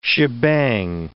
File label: "shebang", words